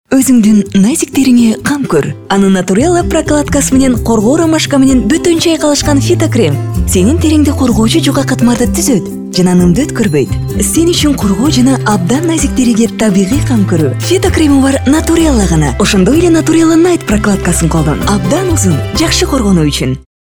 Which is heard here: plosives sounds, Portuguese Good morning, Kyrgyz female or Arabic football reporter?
Kyrgyz female